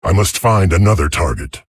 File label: Male Tauren, ERROR TARGET